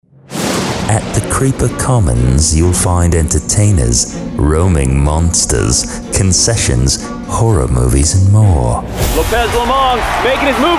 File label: Character